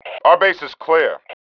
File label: base clear, MALE